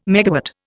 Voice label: "megawatt", Indian

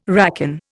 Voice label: "racon", Indian

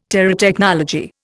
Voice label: "Terotechnology", Indian

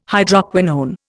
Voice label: "Hydroquinone", Indian

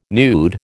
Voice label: "nude", American

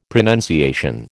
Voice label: "pronunciation", American

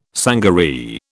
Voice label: "sangaree", British

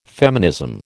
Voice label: "feminism", American

